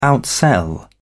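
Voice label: "outsell", British English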